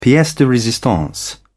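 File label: "piece de resistance", British English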